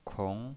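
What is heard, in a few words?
"kong", second tone, pinyin voice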